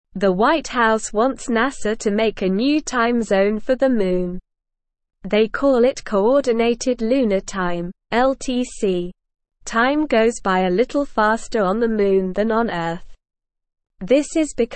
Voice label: English Newsroom Beginner SLOW Reading; Moon Time A New Time Zone for Space